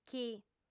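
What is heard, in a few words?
"chi", female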